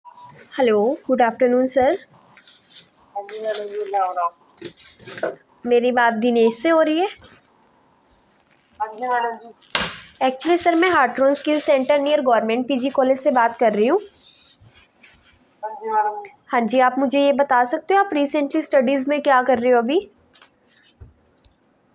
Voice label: call recording